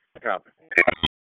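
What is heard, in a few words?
Police Spec Ops Tac, radio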